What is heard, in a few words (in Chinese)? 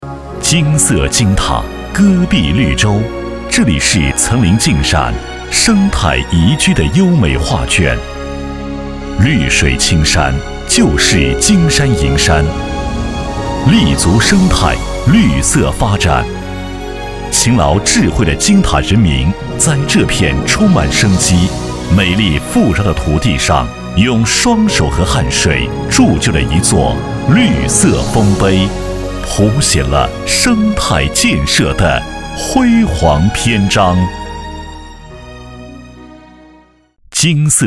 男, 专题; 绿色丰碑